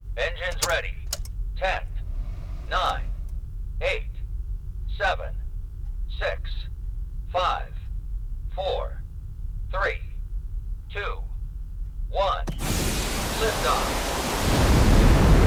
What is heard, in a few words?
ingles rocket launch; 3 a 5 anos